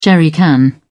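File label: "jerrycan", British English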